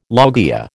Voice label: "loggia", American